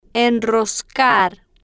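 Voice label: "enroscar", Spanish, female, slow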